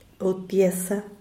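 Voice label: "rtyesa", Zapotec, Díaz Ordaz; brincar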